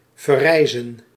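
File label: "verreizen", Dutch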